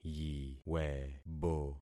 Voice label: "yiwebo", concat syllables; Affixing experiment

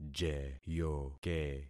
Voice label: "jeyoke", concat syllables; Affixing experiment

